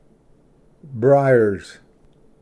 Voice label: "ᏧᏣᏲᏍᏗ", Pronunciation; briars